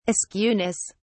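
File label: "askewness", English, British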